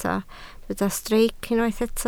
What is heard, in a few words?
"streic", welsh